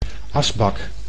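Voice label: "asbak", Dutch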